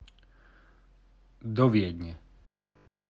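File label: "do Viedne", Slovak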